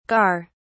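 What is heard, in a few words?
"gar", English, British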